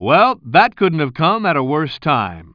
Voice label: commentator